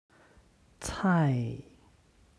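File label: "cai", Chinese; 菜; 料理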